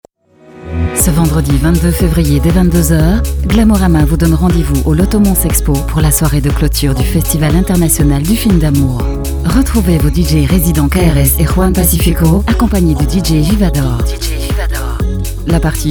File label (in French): Voix francophone